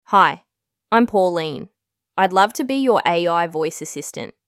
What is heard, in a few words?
Australian Female